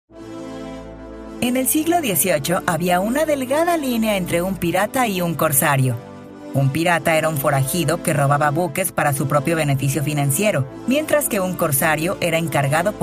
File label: Audio Guide Sample in Spanish